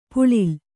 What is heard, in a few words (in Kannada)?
"ಪುಳಿಲ್", Kannada